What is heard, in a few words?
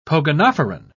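"pogonophoran", British English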